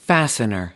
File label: "fastener", us